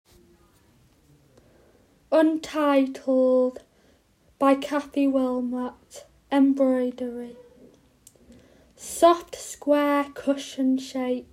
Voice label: audio description; Untitled square cushion embroidery